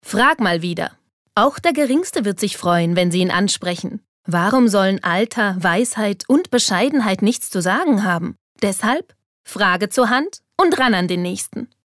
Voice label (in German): Werbung Freundlich